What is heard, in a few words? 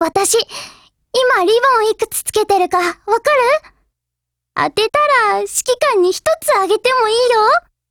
DIALOGUE, JP; T65